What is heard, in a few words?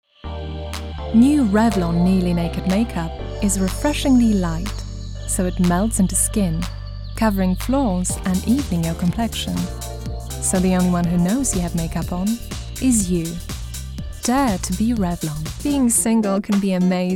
english commercial, english female voices british accent